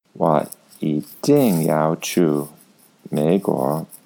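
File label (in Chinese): "wo yiding yao qu meiguo", Mandarin Chinese; 我一定要去美国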